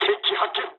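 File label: Japanese enemy detected mood low; War Thunder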